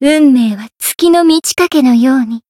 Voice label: Voice win, jp; radica

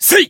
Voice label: Voice attack, jp; claude

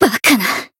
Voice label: damaged, jp; suzette

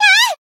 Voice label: Voice damaged, jp; mana

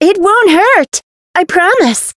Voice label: Voice chant; pom

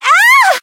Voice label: Voice damaged; yukino

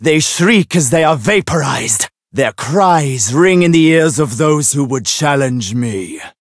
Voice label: story

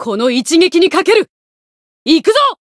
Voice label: voice jp; buria; limit break

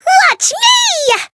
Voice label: Voice chant; ciel; variable chant

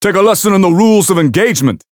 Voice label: chant

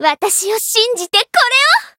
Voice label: heal, jp; pom

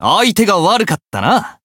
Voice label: win, jp

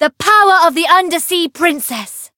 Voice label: Voice variable chant

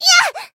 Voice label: damaged jp; myrus

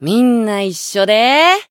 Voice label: limitbreak, jp; mighty

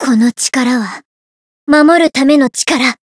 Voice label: chant, jp; nagi

